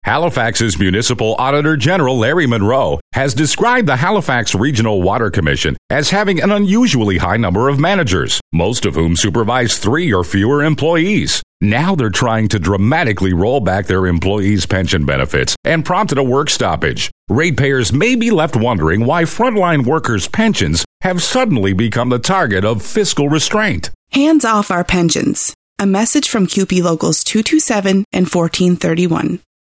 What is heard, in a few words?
spot